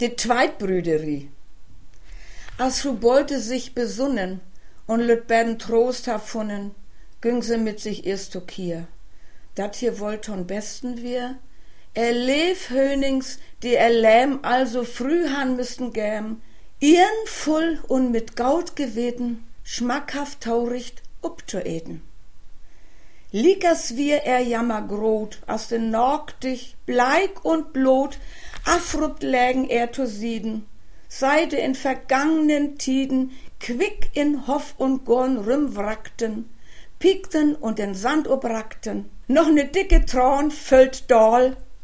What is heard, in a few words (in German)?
Dialekte Schwerin